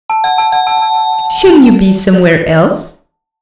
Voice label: female alert